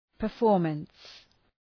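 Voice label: "performance", English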